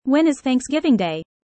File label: fast; four seasons